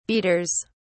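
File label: "beaters", British English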